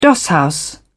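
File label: "dosshouse", British English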